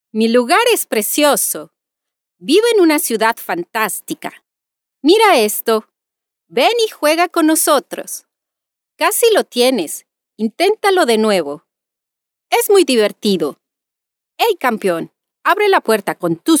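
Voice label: female children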